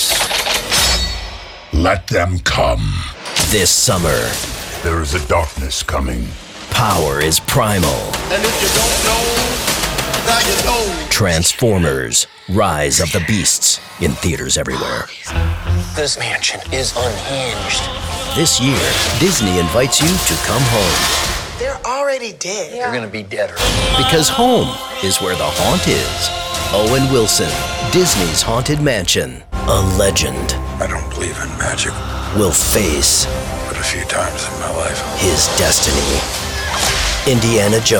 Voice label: Trailer